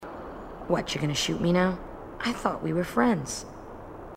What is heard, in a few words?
female marine, marine tough